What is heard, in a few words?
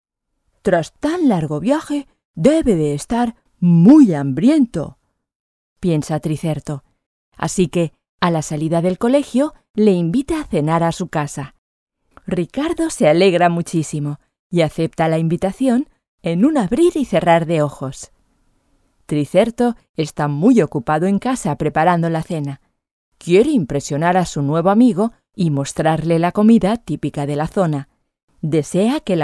fairytale dinosaurio es